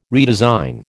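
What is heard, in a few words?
"redesign", American English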